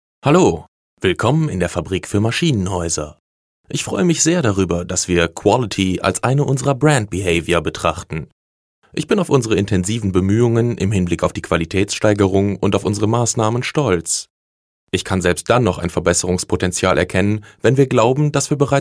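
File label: German elearning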